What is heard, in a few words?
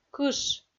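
"кыш", Komi-Zyrian, female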